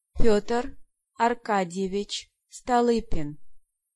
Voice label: "Stolypin", pronunciation